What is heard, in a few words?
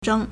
"zheng1", Chinese